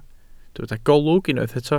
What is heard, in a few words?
"golwg", Welsh